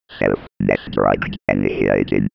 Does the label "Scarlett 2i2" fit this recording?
No